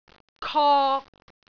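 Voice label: "kok3", Cantonese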